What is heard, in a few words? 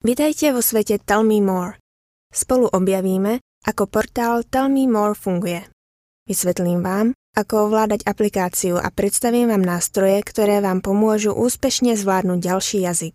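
Slovak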